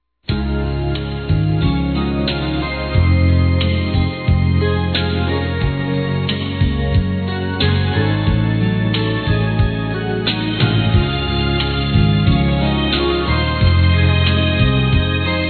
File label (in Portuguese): Aniversário Tio Infantil Feminino; Telemensagens